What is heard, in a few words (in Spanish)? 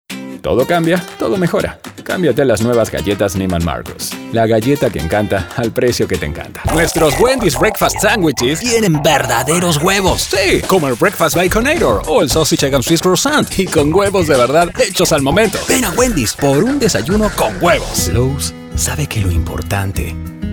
comerciales español neutro